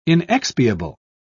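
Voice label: "inexpiable", British English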